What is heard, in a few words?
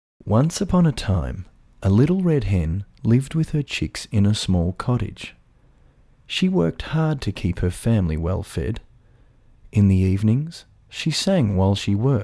Audio Book Compilation